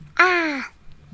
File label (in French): a long amplifié + accéléré